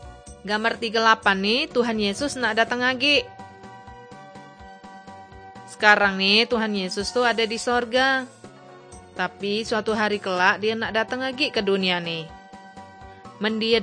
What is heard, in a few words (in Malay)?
kabar baik good news malay bangka